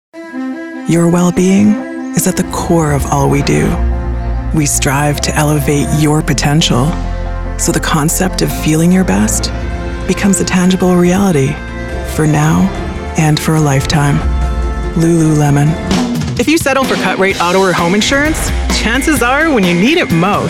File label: Commercials